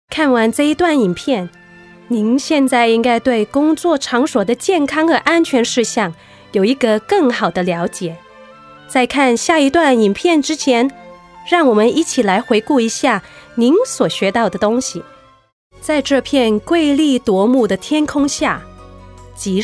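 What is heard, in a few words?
Mandarin, narration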